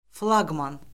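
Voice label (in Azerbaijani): "flaqman", Azerbaijani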